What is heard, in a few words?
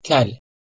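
"kel", Proto-Indo-European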